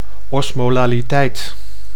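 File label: "osmolaliteit", Dutch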